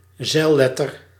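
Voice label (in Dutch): "zeilletter", Dutch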